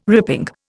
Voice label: "ripping", Indian